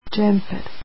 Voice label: "sweater" croatian